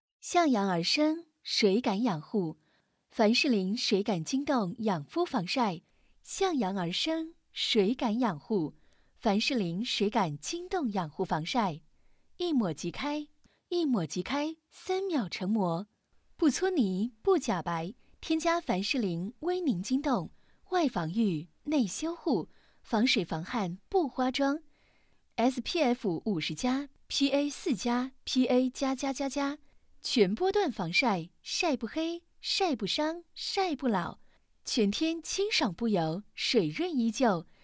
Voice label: 女 广告; 凡士林

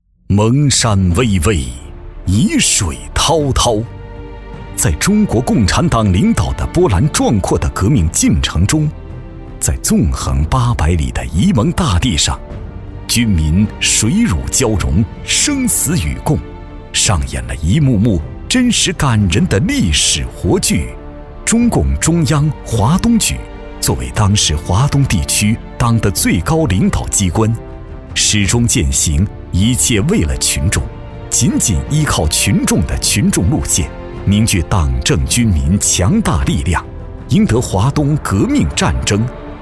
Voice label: 男, 革命专题, 恢弘大气

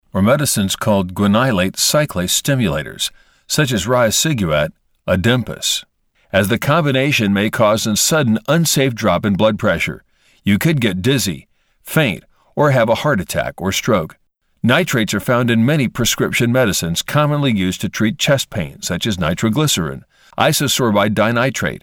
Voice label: 自然叙述 医学

